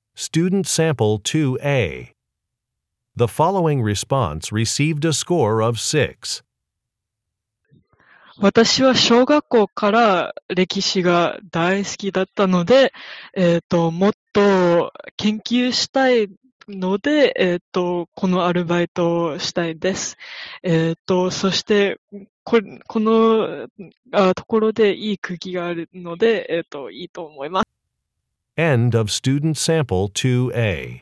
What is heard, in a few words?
japanese conversation; AP Japanese Language and Culture